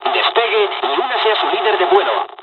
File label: takeoff, dialogs spanish; War Thunder